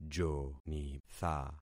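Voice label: "jonitha", concatenated syllables; Affixing experiment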